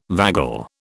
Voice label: "vagal", British